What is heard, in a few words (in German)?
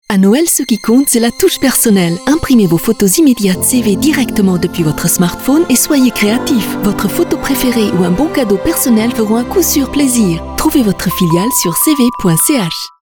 Sofortfotos FR; cewe